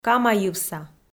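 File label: "камайывса", Komi, female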